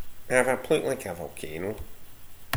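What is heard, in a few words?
"plook", slang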